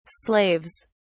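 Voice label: "slaves", British English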